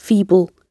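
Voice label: "feeble", British English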